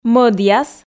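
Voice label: "мӧдъяс", Komi, female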